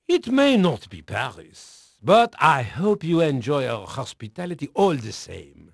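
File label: French male, voice; Pirates Of The Caribbean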